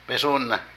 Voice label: Huir, Zapoteco de Petapa; Vocabulary

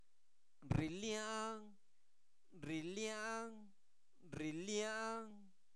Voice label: Zapoteco Albarradas, santo domingo albarradas; INALI; verbos; se calienta

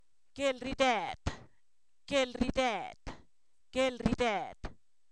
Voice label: diarrea, Zapoteco, san miguel albarradas; enfermedades

